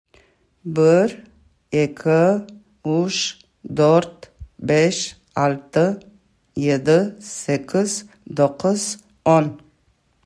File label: Crimean Tatar numbers; Crimean Tatar page 8